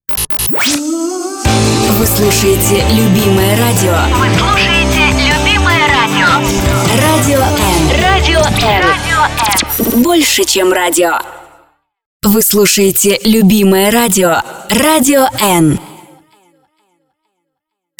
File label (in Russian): Radio Одноголосный